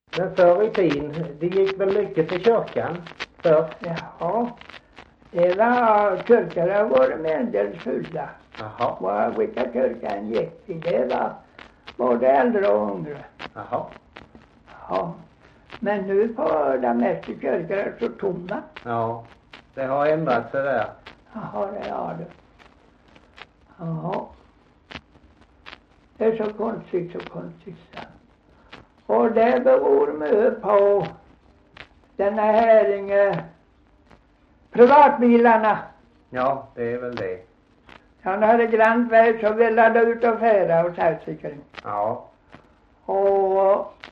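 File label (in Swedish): Gunnarp Halland, Svenska sagor, dialekter; Folkminnen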